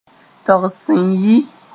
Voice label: "togs sẽn yɩ", Mooré

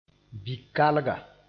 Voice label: "bikalga", moore

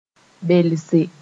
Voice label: "belse", Mooré

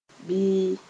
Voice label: "bɩɩ", Mooré

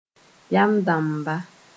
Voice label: "yam-dãmba", Moore

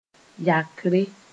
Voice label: "yakre", Moore

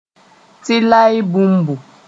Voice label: "tɩlae bũmbu", moore

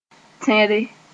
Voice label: "tẽre", Moore

